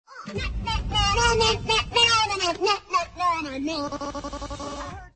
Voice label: rap